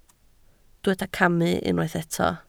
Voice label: "camu", Welsh

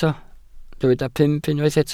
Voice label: "pump", Welsh

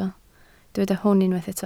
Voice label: "hwn", Welsh

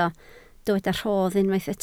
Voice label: "rhodd", Welsh

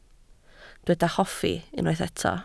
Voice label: "hoffi", Welsh

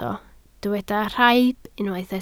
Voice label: "rhaib", Welsh

